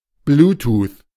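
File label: "Bluetooth", German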